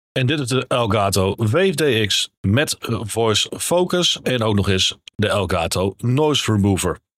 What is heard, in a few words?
Wave DX Voice Focus en Noise Remover